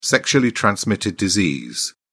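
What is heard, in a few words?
"sexually transmitted disease", British English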